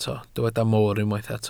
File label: "mor", Welsh